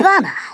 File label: "OOPS", Stiff Upper Lip